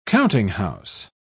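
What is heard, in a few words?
"countinghouse", British English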